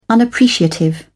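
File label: "unappreciative", British English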